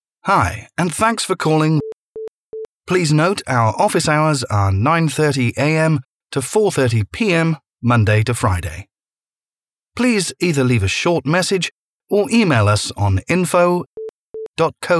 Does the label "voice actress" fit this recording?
No